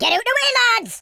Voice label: "take cover", Scotsman; speech